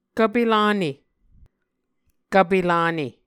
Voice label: "kvpe lane", Muscogee